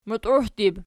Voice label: "mutiuhdib", Archi